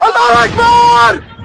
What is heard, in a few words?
allahuakbar explosion